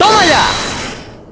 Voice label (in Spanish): "Take that", Spanish; Apollo